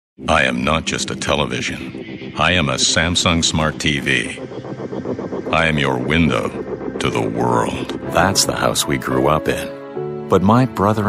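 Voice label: english canadian male commercial; voice demos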